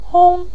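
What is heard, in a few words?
"hong", Mandarin Chinese, neutral tone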